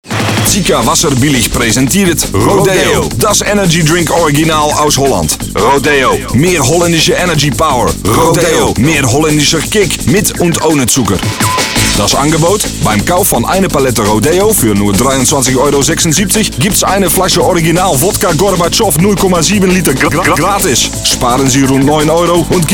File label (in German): akzent werbung